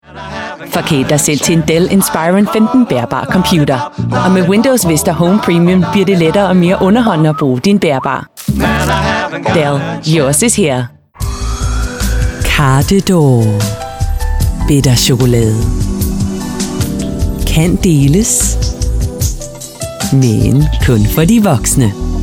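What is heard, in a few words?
commercials danish english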